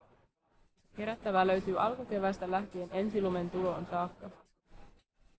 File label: factory1 cleaned